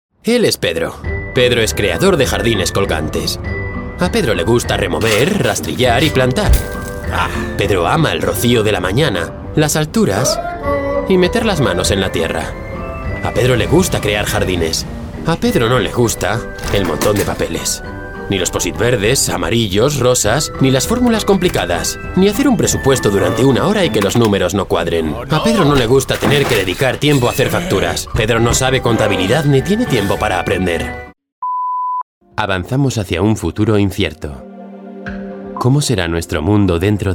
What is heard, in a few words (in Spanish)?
narración